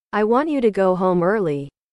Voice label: english